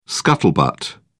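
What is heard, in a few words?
"scuttlebutt", English, British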